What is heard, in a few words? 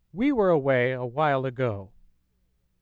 xsynth speech mod